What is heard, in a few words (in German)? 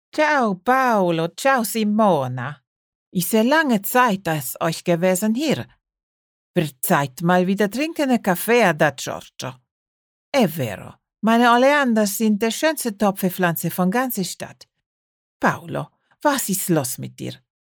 Stimmprobe Akzent italienisch